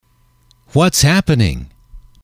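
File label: whats happening, English, male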